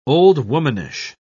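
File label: "old-womanish", British English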